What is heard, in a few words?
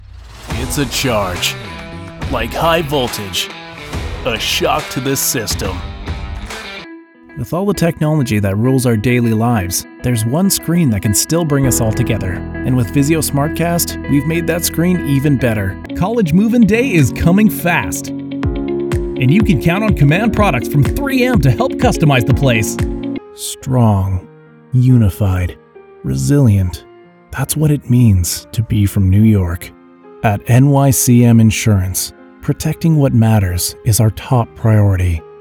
commercial